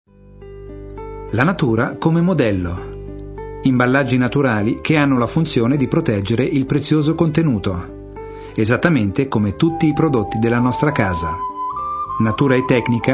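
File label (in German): Italian Werbung Sanft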